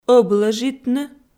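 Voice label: "ӧблӧжитны", Komi, female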